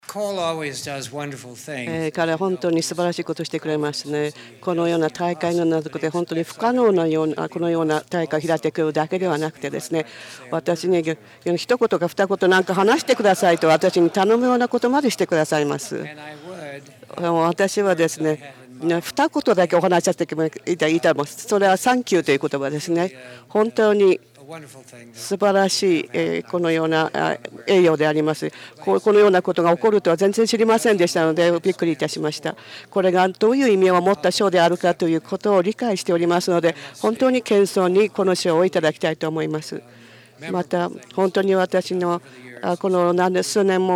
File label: Japanese; iclrs symposium 2009